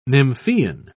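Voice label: "nymphean", English, British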